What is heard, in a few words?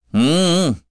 vox Deny, kr; Ezekiel; kingsraid